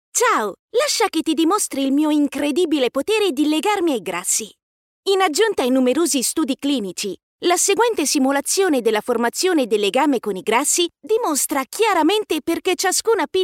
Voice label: Italian female character

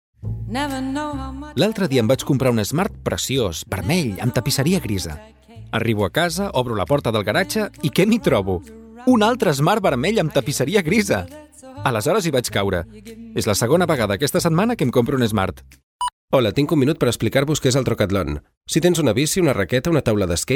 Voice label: spanish male spanish catalan

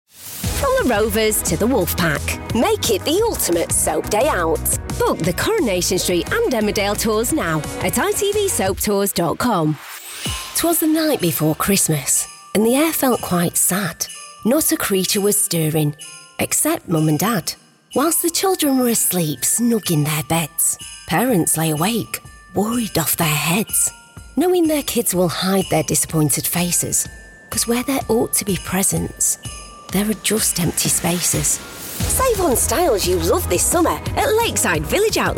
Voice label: sponsorships promos

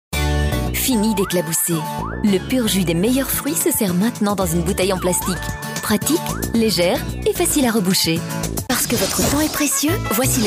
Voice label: French Belgian female commercial montage

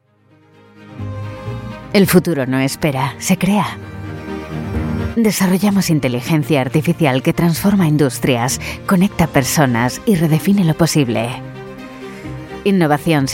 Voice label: spanish commercial, Sultry Spanish English Female

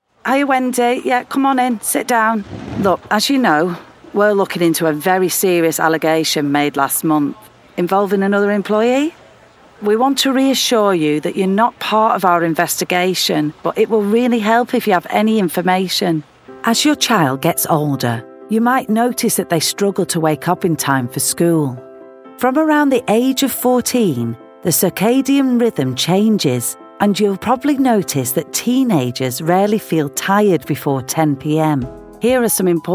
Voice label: e-learning

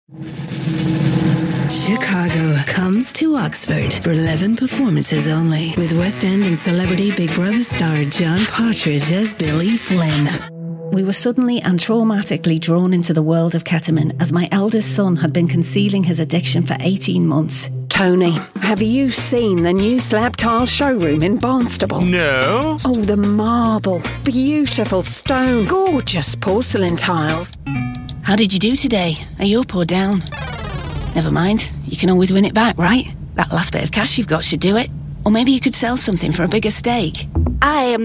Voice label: character, Warm Northern

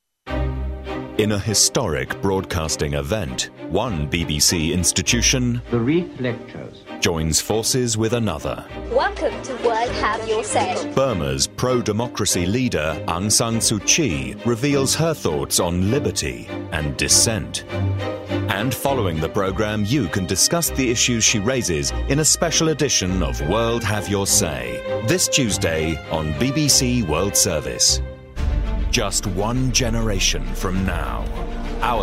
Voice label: promos, Warm Resonant British Voiceover

